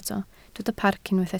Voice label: "parc", Welsh

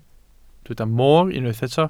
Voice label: "moor", Welsh

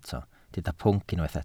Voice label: "pwnc", Welsh

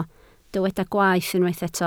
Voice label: "gwaeth" Welsh

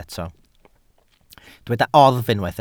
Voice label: "oddf" Welsh

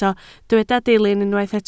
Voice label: "dilyn" Welsh

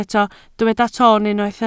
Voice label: "toon" Welsh

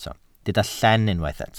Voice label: "llen", Welsh

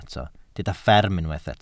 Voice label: "fferm" Welsh

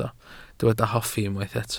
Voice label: "hoffi", Welsh

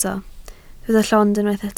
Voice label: "llond", Welsh

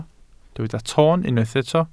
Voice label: "ton", Welsh

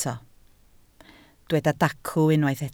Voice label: "dacw", Welsh

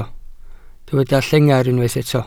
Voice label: "llengar" Welsh

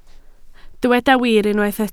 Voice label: "wir", Welsh

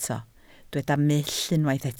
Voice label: "mull" Welsh

